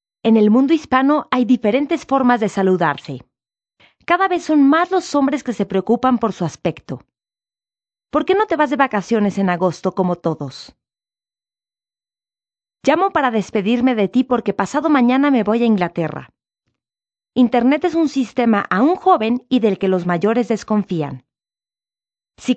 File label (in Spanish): Spanish South America, F